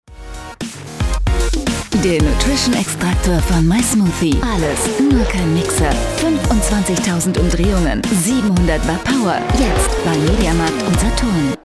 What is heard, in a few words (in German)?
Werbung; MySmoothie